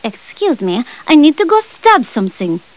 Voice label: chat; maeve

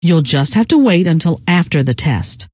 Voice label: wait until test, scientist female

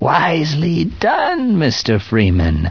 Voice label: cheer, voice commands; gman

